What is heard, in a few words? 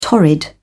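"torrid", English, British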